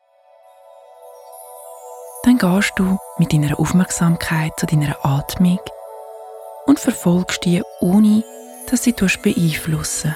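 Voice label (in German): Meditation Einstimmung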